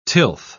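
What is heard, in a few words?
"tilth", British English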